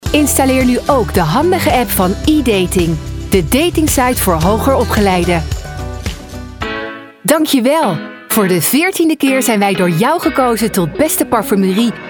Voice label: Commercials